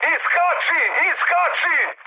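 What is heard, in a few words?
Serbian bailout mood high, speech; War Thunder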